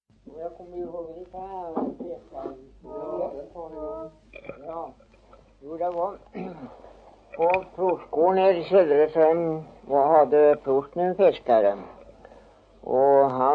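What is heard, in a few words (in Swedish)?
Sillerud Värmland, dialekter; Folkminnen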